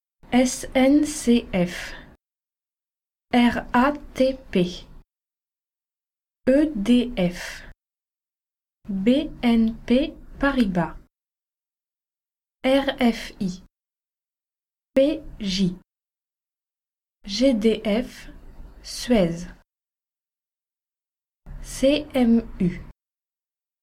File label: Spelling French Abbreviations; spelling your name in French